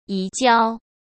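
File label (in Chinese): "移交", Mandarin; chinese-flashcards; hsk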